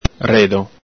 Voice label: "redo", Venetian